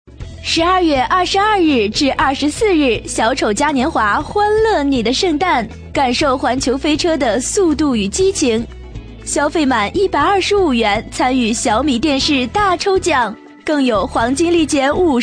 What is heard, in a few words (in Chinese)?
女 促销 沉稳大气